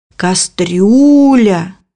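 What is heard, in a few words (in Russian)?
"кастрюля", Russian; bukvar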